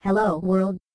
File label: filters, robot